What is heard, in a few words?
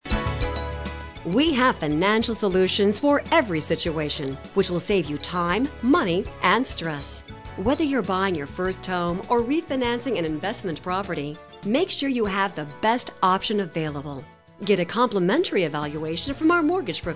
On Hold; auto bike; Insurance Express NY